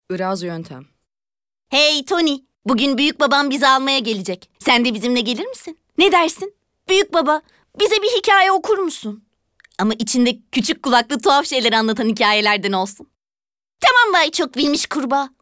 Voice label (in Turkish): animasyon